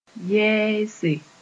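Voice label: "yɛɛse", Mossi; frighten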